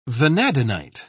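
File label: "vanadinite", British English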